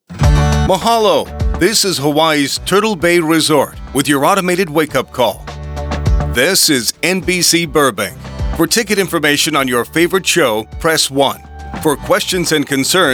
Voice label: American Male Voice, On Hold Messaging, Accent: Neutral American English, Tone / Style: Smooth, conversational; Tone / Style: Smooth, conversational, natura